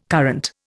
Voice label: "current", Indian